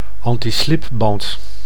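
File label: "antislipband", Dutch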